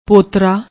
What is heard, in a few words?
"pottra", Punjabi